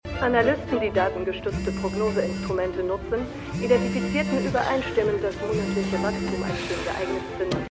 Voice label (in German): Sprecherin; The Good Fight